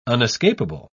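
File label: "unescapable", British English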